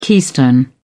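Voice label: "keystone", British English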